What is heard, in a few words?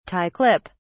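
"tie clip", British English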